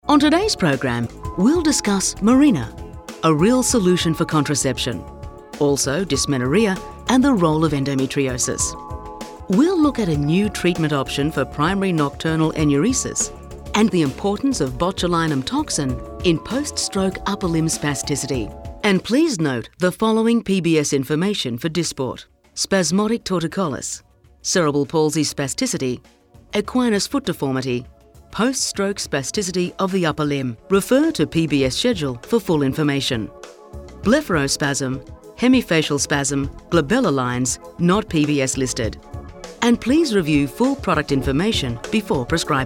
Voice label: Medical Narration